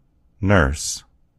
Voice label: "nurse", en US; gcompris

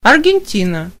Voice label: "argentina", en; gcompris-data; geography

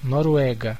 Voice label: "norway", pt BR; gcompris; geography

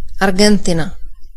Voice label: "argentina", cs; gcompris; geography

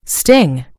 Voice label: "sting", en GB; gcompris

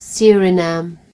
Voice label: "suriname", en GB; gcompris

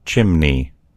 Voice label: "chimney", en US; gcompris

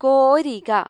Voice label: "shovel", ml; gcompris

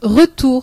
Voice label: "back", fr; gcompris

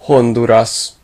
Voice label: "honduras", hu; gcompris; geography

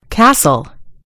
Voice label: "castle", en US; gcompris

